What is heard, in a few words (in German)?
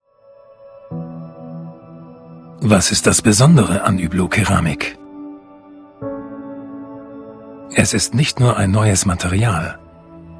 Produktfilm; Hublot